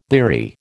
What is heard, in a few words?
"bleary", American